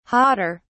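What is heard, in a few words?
"hotter", British English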